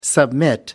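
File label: "submit", English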